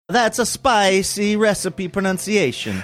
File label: saying thats a spicy recipe pronunciation faux italian